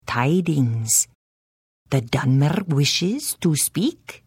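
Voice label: Hello, Khajiit female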